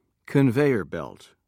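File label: "conveyer belt", British English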